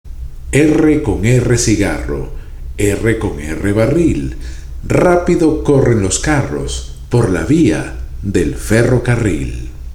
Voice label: R CON R CIGARRO, spanish tongue twisters; 46 Spanish Tongue Twisters To Perfect Your Pronunciation